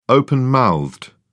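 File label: "open mouthed", British English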